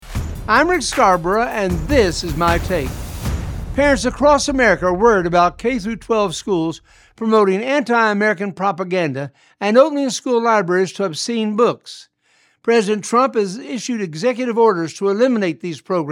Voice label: radio spot; Rick Scarborough; School Board Elections